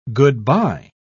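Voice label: "good-by", British English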